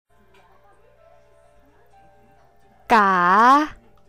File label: Tone 5 is rising tone female